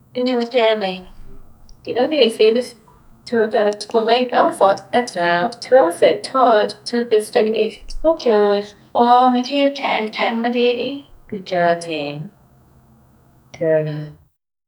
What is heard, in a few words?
female behind door